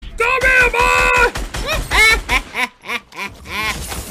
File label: this game is trash funny reaction; tsm daequan